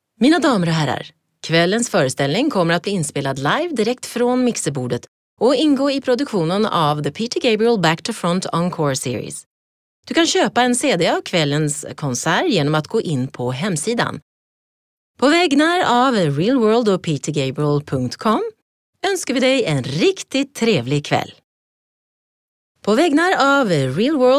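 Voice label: Swedish announcement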